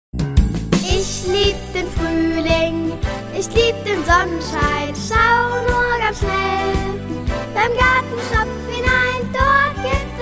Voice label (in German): kinderstimme spot fruehling